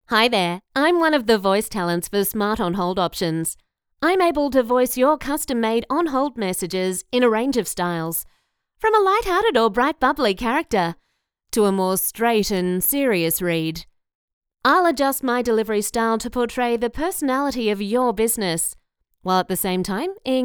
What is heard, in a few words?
FEMALE